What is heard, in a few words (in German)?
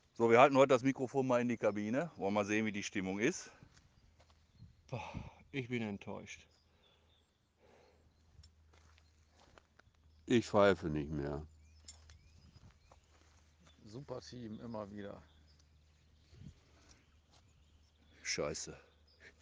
Kabinengespräch